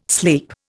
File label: "sleep", Indian